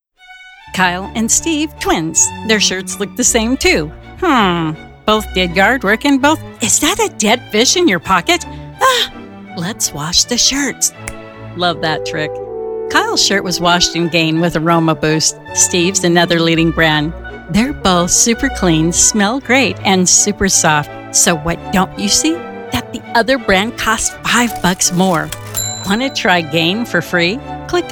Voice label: EXPLAINER